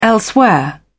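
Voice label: "elsewhere", British English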